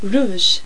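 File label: "rouge", French; Virtual Casino: Secret Fantasies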